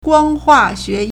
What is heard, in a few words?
"guang1hua4xue2", Chinese